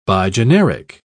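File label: "bigeneric", English, British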